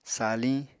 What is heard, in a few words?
"saliñ", Apatani